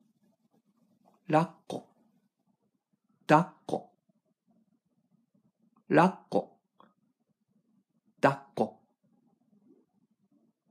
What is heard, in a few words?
rakko dakko, pronunciation